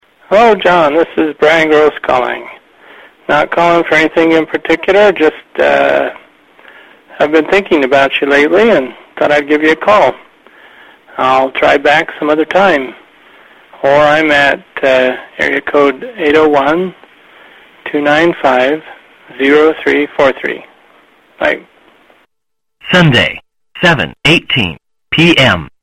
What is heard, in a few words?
answering machine messages; checking in